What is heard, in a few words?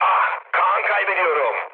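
Turkish damaged pilot, mood low, speech; War Thunder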